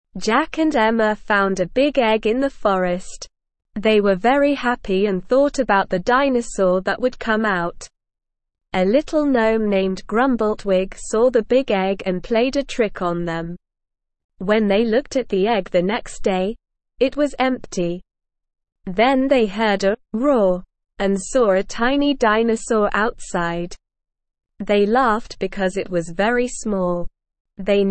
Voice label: ESL Short Stories for Kids Beginner SLOW Reading; The Dinosaur Egg

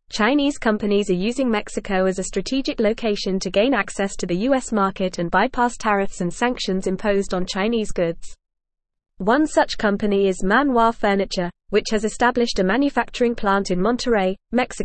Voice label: English Advanced FAST Reading; English Newsroom Advanced; Chinese Companies Using Mexico as Backdoor to US Market

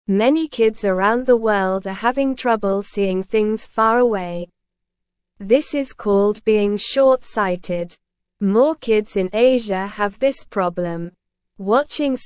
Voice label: English Beginner SLOW Reading; Kids and Screens How to Protect Your Eyes

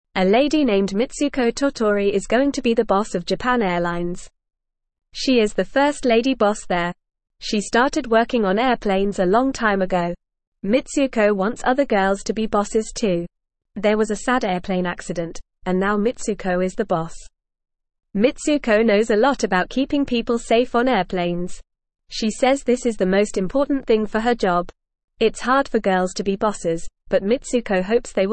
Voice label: English Beginner FAST Reading; Mitsuko Tottori The First Lady Boss of Japan Airlines

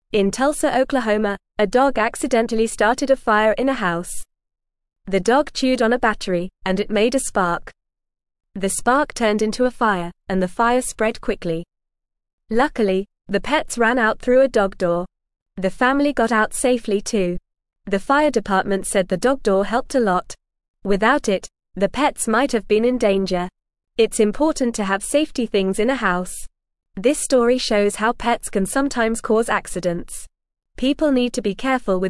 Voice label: English Beginner FAST Reading; Dog Starts Fire But Everyone Gets Out Safe

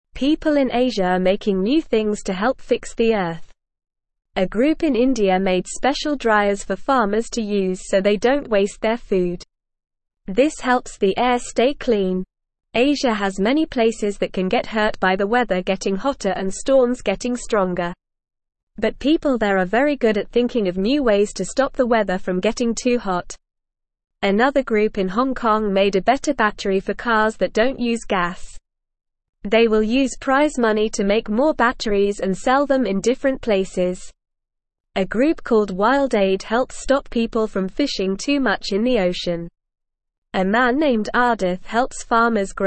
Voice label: English Newsroom Beginner NORMAL Reading; People in Asia Helping Fix the Earth

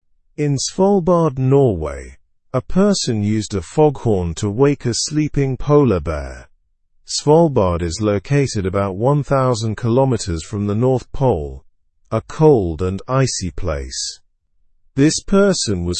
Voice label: english news lower intermediate slow; foghorn startles sleeping polar bear

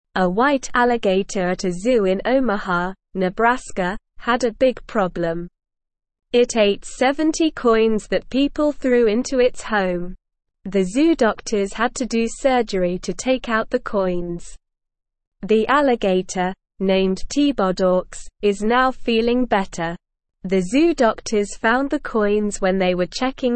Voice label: English Lower Intermediate SLOW Reading; English Newsroom; White Alligator Eats Coins Zoo Doctors Help

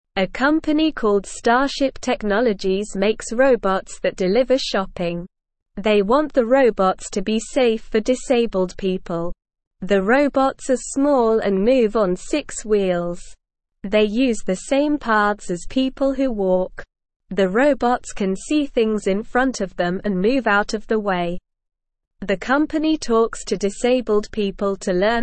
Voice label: English Newsroom Beginner SLOW Reading; Robots Deliver Shopping Safely for Disabled

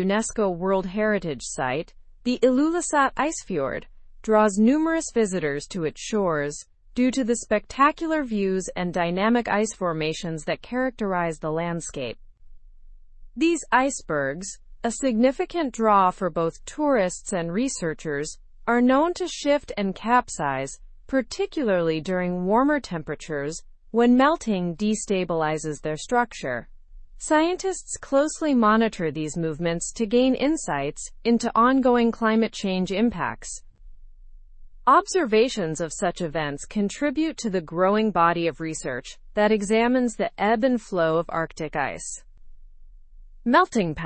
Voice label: learn english through news advanced normal; massive iceberg capsizes near greenland s coast

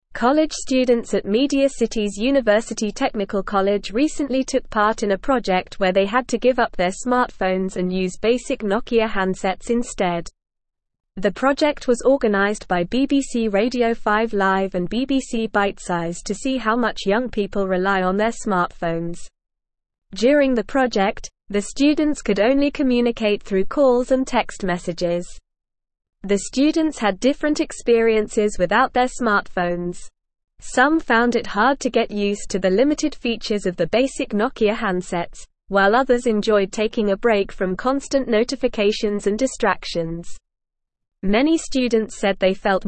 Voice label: English NORMAL Reading

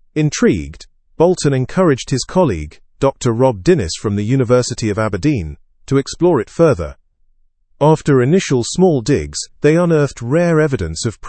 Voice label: learn english through news upper intermediate; holiday in wales unearths ancient findings

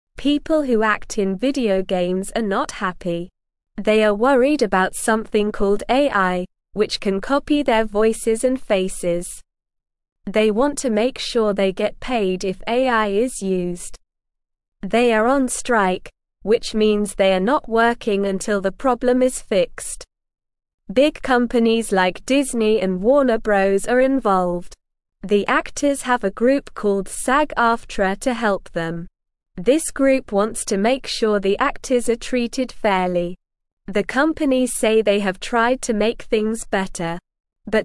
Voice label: English Beginner SLOW Reading; Actors in Video Games Want Fair Treatment Now

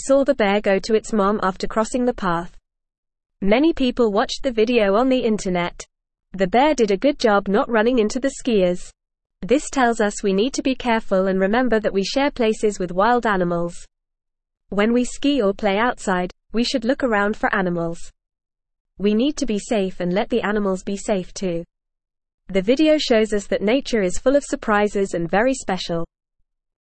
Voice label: Beginner FAST Reading, English; English Newsroom; Bear and Skier A Surprising Encounter on the Hill

